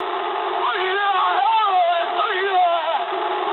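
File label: damaged pilot mood medium, speech English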